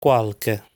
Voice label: "Qualche", Italian

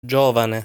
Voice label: "Giovane", Italian

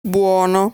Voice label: "Buono", Italian pronunciation

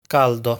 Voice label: "Caldo", Italian

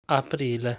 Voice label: "Aprile", Italian

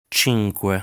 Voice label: "Cinque", Italian; lesson 5 pronunciation

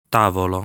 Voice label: "Tavolo", Italian

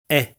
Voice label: "È", Italian

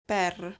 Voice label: "Per", Italian

